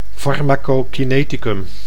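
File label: "farmacokineticum", Dutch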